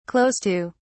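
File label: "close to", British English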